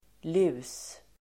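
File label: "lus", Swedish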